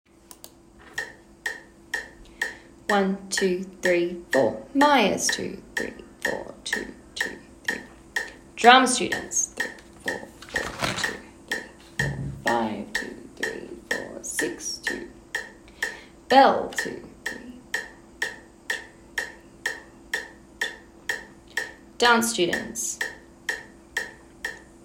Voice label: MUSIC Spoken in rhythm (125bpm); Spoken Recordings; Fame The Musical - Holiday Pack